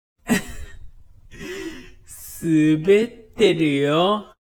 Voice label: man